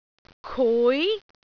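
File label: "kui2", Cantonese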